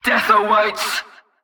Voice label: Female Alert Line, Cultist Spotted; NPC; Heist

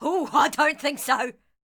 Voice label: Ranged Female Alert Line, Thug Spotted; NPC; Heist

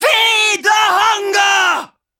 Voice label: Female Taunt, Cannibal, dialogue

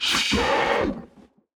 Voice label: Automaton Alert Line; NPC; Heist Robot Spotted

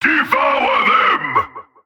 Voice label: Male Chokepoint Line, dialogue; NPC; Heist Cultist Chokepoint

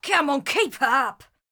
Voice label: Ranged Female Patrol Line; NPC; Heist Thug Captain Patrol

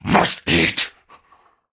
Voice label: Cannibal Male Taunt; Trunk Act One Monster

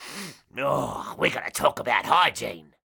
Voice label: Pirate Elite Male Patrol Line; NPC; Heist Thug Captain Patrol

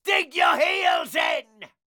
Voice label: Pirate Elite Male Chokepoint Line; NPC; Heist Thug Chokepoint

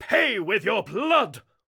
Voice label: Melee Miniboss Mid Combat Line; NPC; Heist Military Mid Combat

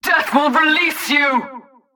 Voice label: Female Mid Combat Line

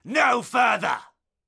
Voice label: Caster Elite Alert Line, dialogue; NPC; Heist Military Spotted

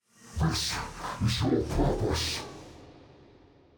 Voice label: Midfight Stereo; NPC; Malachai Combat

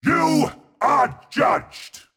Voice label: Mid Fight Taunts; Voll; NPC; Voll Combat

